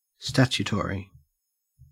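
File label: "statutory", Australian English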